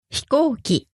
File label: "hikouki", Japanese; airplane